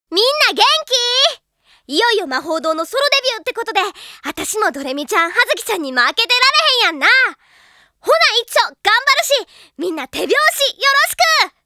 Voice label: Opening Narration; Ojamajo Doremi; Aiko Hen